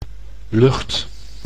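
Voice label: "lucht", Dutch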